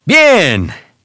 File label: "ok", Spanish